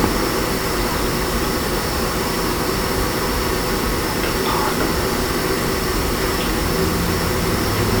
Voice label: Living Room Unexplained Whisper (Depart) AMPLIFIED